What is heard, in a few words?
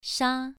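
"sha" Mandarin Chinese, second tone, pronunciation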